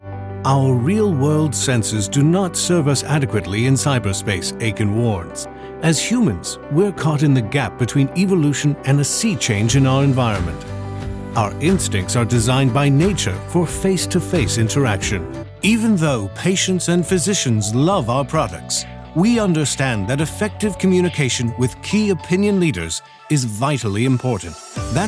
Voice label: Neutral Accent English